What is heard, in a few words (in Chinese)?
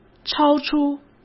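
"超出", Mandarin Chinese; chinese flashcards; hsk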